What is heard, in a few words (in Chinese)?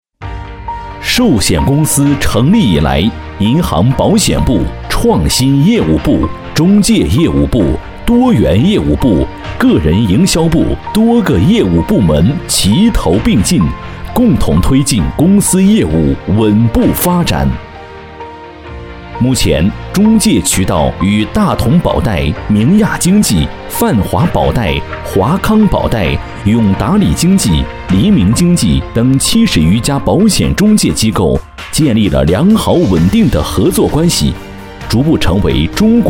男 专题片 力度感情; 中介业务部35周年献礼